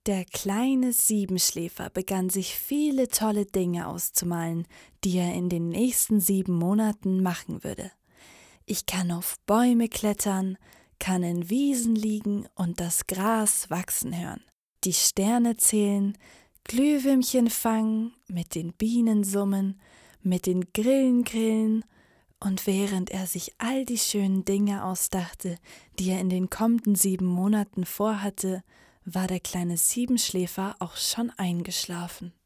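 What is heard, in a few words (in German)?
Mädchen; Der Siebenschläfer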